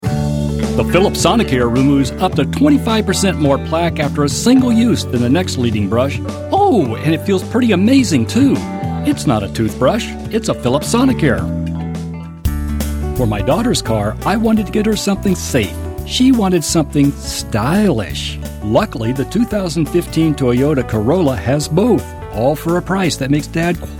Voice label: Commercials

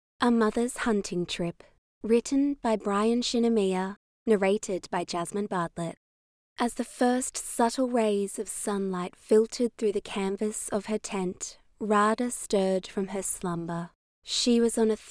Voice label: Audiobook Sample; A Mother s Hunting Trip

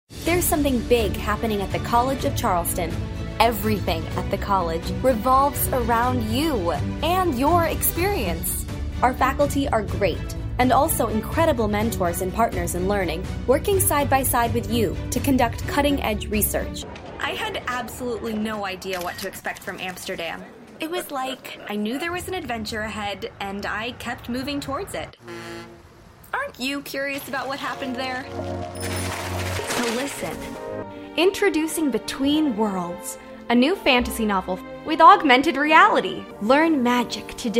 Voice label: Commercial

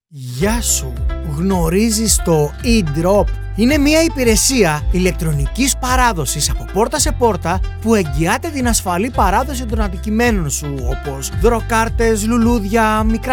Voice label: Greek Commercial Informative

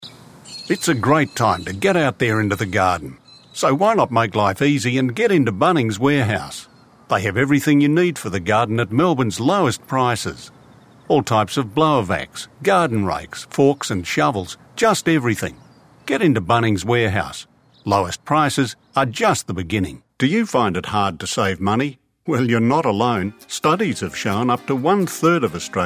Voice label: COMMERCIALS

